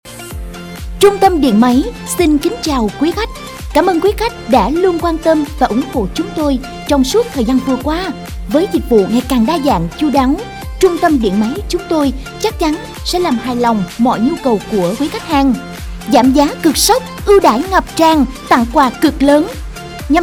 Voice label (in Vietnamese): thu am quang cao; Trung tam dien may